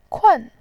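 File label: "kun4", Mandarin Chinese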